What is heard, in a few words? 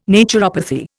"naturopathy", Indian